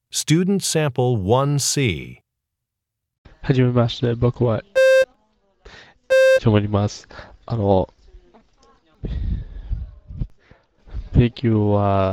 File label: japanese conversation